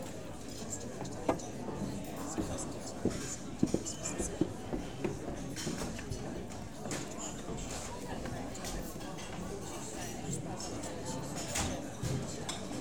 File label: Self-Service Restaurant Voices